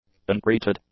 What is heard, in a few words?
"untreated", english; adjectives